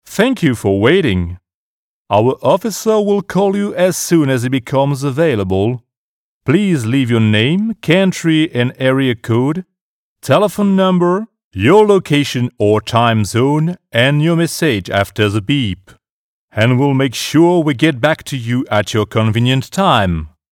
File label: Warm voice standard Voicemail